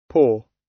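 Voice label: "paw", British; Flora and fauna, agriculture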